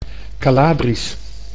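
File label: "Calabrisch", Dutch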